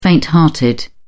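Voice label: "fainthearted", British English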